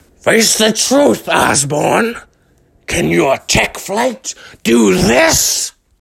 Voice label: Vulture, voice acting